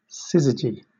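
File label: "syzygy", British English; pronunciation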